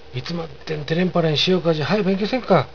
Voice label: いつまっでんてれんぱれんしよかーじ、はよ勉強せんかっ！, 有田弁; いつまでもぼやぼやせずに、はやく勉強しないか！; ぼやぼや、いい加減

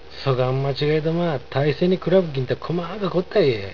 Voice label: そがん間違いどまぁ、大勢に比ぶっぎんたこまーんかこったいえー。, 有田弁; そんな間違いなんか、大勢に比べれば小さい事じゃないか。; こまか、こまんか

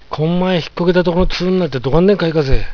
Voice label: こんまえひっ転けたとこのつーんなって、どがんでんかいかぜぇ。, 有田弁; この前転んだ場所にかさぶたができて、とても痒いぞ。; 佐賀弁; つ、つー

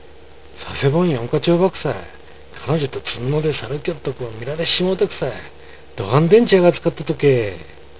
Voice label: 佐世保ん四ヶ町ばくさい、彼女とつんのーでさるきよっとこば見られっしもーてくさい、どがんでんちゃーがつかったとけー。, 有田弁; 佐賀弁; 佐世保の（繁華街である）四ヶ町を、彼女と一緒に歩いてい; 恥ずかしい

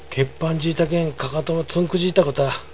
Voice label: けっぱんぢーたけん、かかとばつんくぢーたごたっ。, 有田弁; つまずいたので、かかとを捻挫したようだ。; つんくぢく; 打ち身・捻挫する

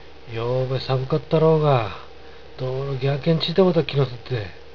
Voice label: よーべさぶかったろーが。どーろぎゃーけんちーたごたっ気のすっぜぇ。, 有田弁; 昨夜寒かったなぁ。どうも（そのせいで）風邪を引いてしまったような気がするぞ。; ぎゃあけ

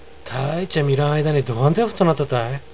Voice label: たーいちゃ見らんあいだに、どがんでんふとーなったたい。, 有田弁; 随分見ないあいだに、とても背が高くなったなぁ。; ふとか、ふとっか; 大きい（「太い」ではなく「背が高い」の意味）

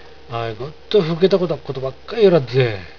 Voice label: あわい、ごっといふーけたごたっことばっかい言いよらっぜー。, 有田弁; あいつ、いつも阿呆くさい事ばかり言っているぜ。; ふーける; 阿呆な（馬鹿な）事を言う（する）

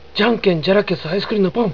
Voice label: じゃんけんじゃらけすあいすくりんのぽんっ！, 有田弁; じゃんけんぽぃっ！; （じゃんけんの囃し言葉）

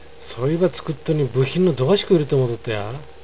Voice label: そいば作っとに、部品のどがしこいるて思うとっとや？, 有田弁; それを作るのに、部品がどれだけいると思っているのだ？

